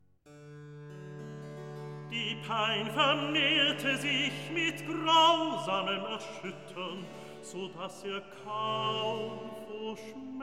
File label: Recitativo evangelist; Die Pein vemehrte sich; Handel Masterworks